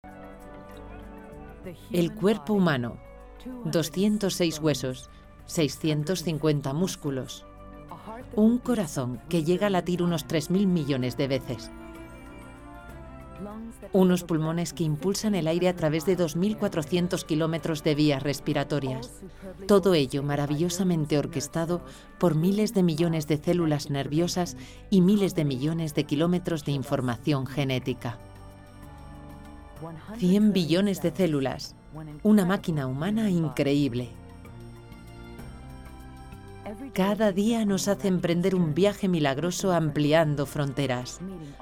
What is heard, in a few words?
DOCUMENTAL